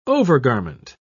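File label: "overgarment", British English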